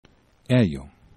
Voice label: "ejo", esperanto